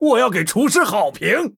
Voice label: Male Human Mirror Greetings, zh